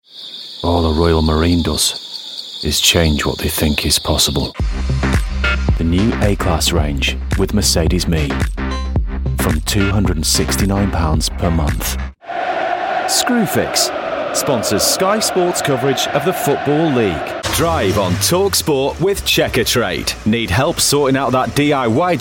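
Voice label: commercials generic, Natural Northen Male Voice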